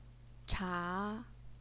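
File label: "cha", Mandarin Chinese, second tone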